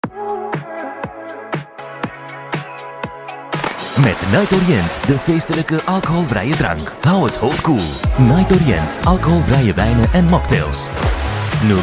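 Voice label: Flemish Commercial Reel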